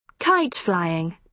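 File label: "kite flying", British English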